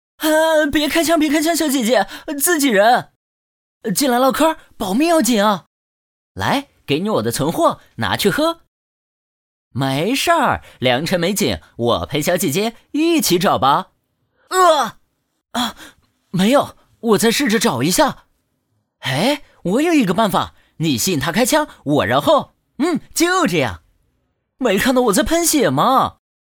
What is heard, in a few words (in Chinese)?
剧本台词 试音 短剧配音